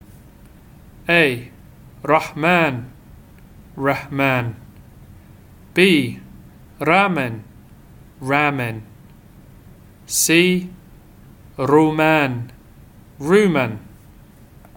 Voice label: arabic japanese bahasa